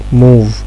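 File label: "move", Nigerian Pidgin, male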